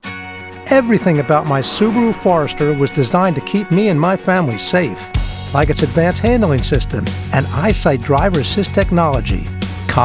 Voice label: Commercial